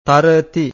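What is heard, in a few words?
"tarati", Pali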